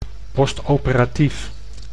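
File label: "postoperatief", Dutch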